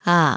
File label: "아", korean; vowel